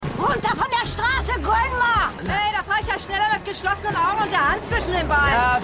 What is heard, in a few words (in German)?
Aeltere Frau Maedchen; Shameless